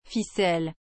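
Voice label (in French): "ficelle", French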